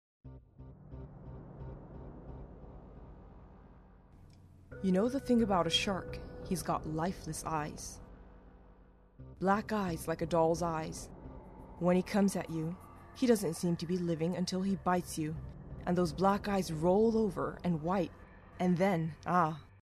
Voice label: serious

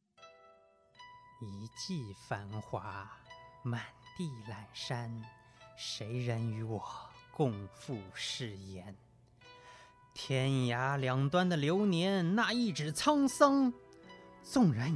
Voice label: poem

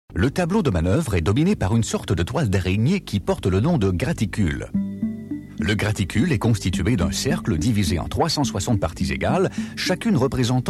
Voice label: french

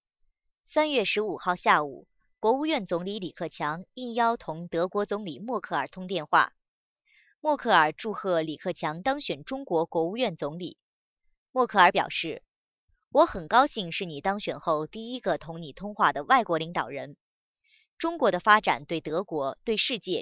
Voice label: news

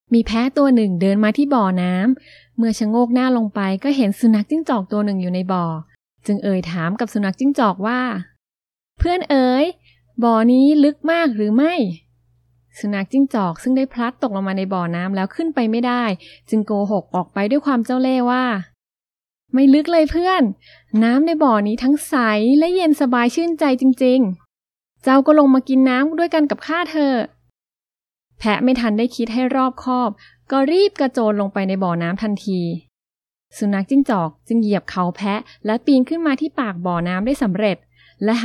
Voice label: story

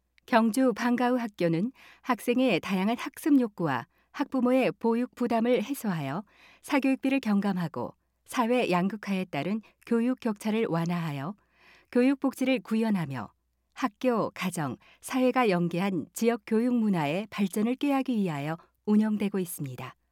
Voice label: korean f

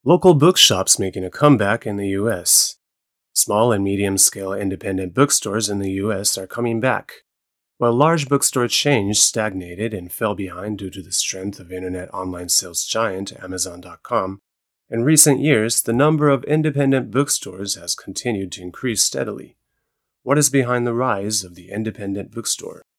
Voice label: news eng

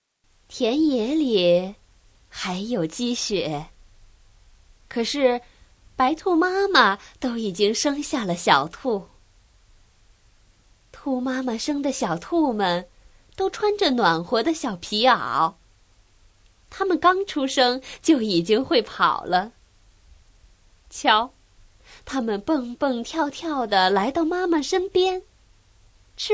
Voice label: story

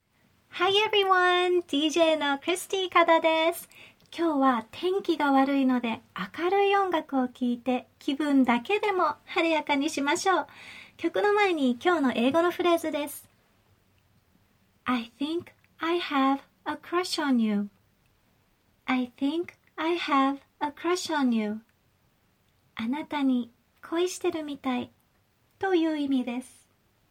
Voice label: radio

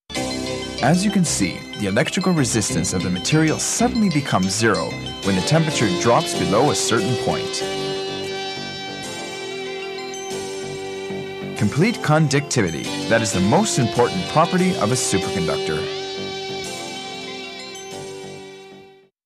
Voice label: narration

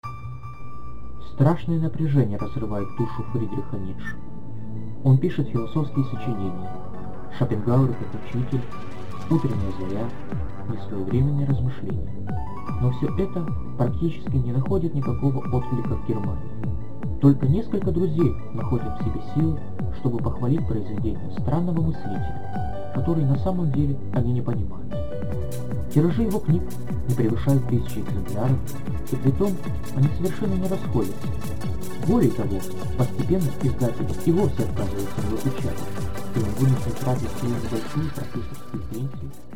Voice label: Russian

